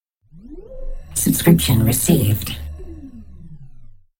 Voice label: subscription recieved female informative; spark

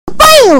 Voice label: poyo but distorted; kirby

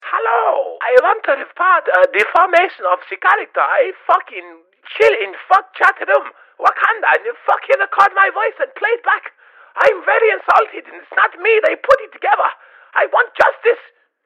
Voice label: character deformation megaphone

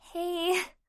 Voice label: "hey", female voice

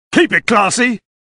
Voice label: english voice clips; ssfiv; dudley

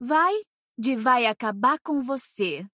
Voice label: attack portuguese

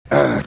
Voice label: growl

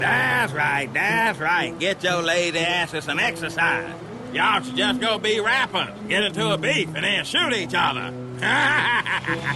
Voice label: rap beef

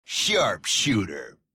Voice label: sharpshooter voice; halo reach

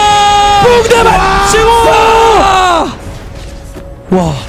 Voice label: korean wooooooo wow

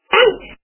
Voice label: kid saying ouch

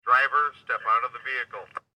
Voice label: bull horn driver step out of the vehicle; sfxbible

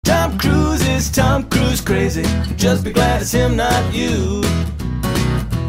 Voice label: crazy; tom cruise

